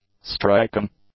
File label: "striking", English; adjectives